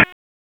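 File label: Police Northwest District Tactical 1, p25; wake p25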